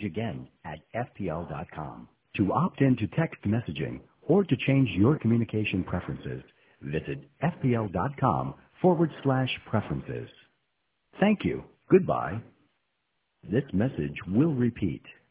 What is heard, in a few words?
voicemail re Power Outages; FPL